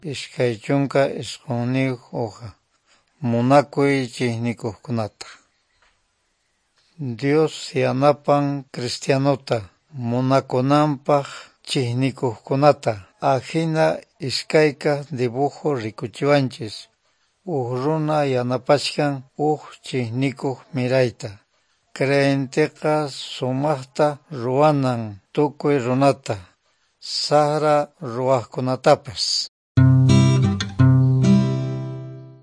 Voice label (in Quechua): good news quechua norte de bolivia apolo